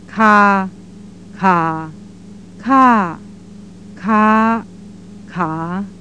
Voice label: 5 tones, Thai